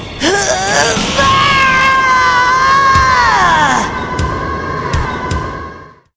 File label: rage; evolution; Gohan